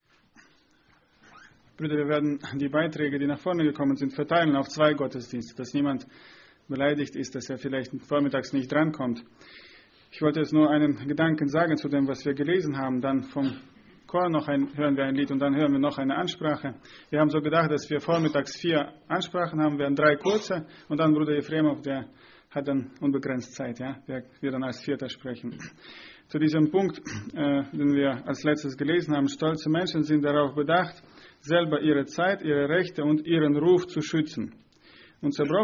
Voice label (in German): ansage; Братское (Германия, 04-25)